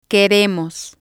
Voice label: "queremos", Spanish